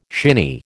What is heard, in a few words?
"shinny", American